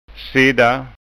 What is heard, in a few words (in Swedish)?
"sidda", skanska ord; Pronounciation; Sitta; Sidde; Sidda (verb)